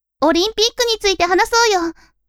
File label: women